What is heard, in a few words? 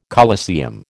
"coliseum", American